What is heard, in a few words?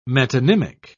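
"metonymic", English, British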